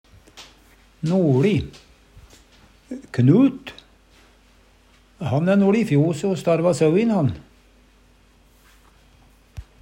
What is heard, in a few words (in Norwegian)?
noLi, Numedalsmål; nord i; Preposisjon